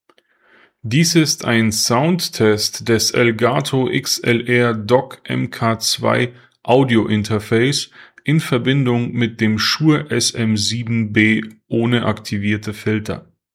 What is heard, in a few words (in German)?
Elgato XLR DOCK MK.2 SHURE SM7B ohne Filter